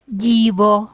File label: "gyvo", Lithuanian